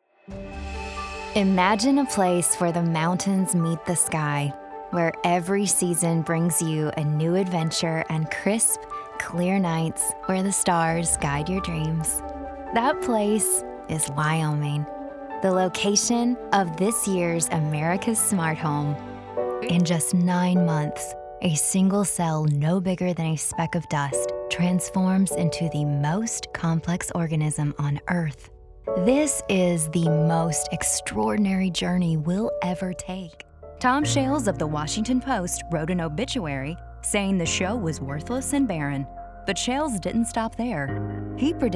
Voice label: narration